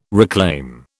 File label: "reclaim", British